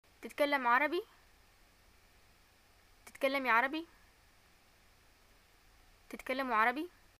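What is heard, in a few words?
do u speak arabic, Yemeni Arabic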